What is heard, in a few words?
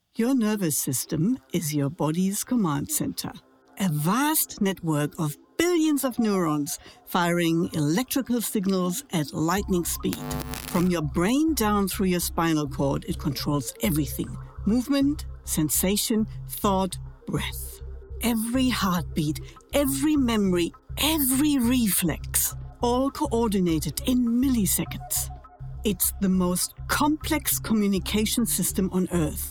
Narration English